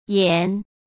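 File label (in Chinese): "延", Mandarin Chinese; hsk; chinese-flashcards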